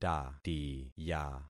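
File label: "tatiya", concatenated syllables; Affixing experiment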